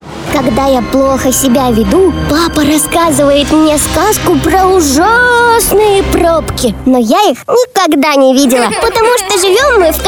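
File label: child girl voice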